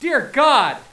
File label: "dear god", hostage reactions